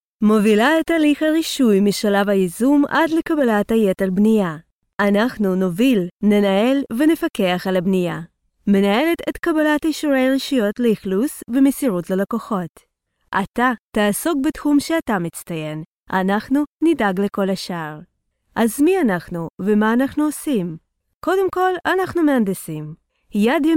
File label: Business promo in Hebrew